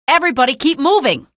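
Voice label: citizen squad flee, female; vo; npc; source engine; episodic; episode 1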